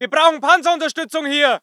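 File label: voice german infantry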